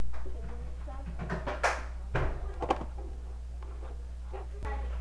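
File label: "neck", spoken word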